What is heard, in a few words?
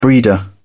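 "breeder", British English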